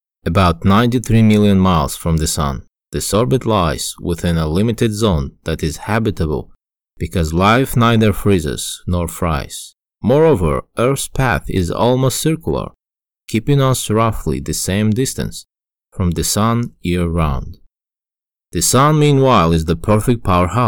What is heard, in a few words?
English; Earths Perfect Address